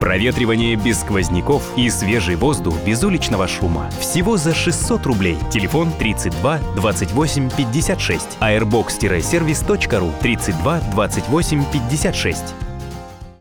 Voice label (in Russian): airbox radio rolik; airbox service